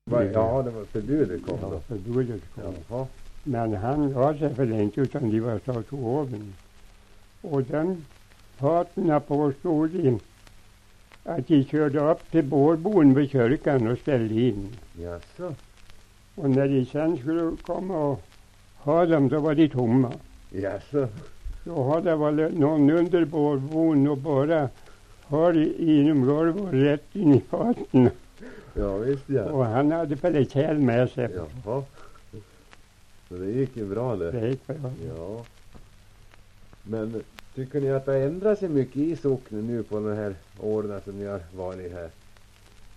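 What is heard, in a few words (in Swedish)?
Säterbo Västmanland, dialekter; Folkminnen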